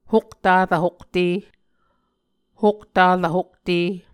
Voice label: "hoktarv hokte", Muscogee